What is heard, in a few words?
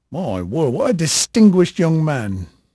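English male, voice; Pirates Of The Caribbean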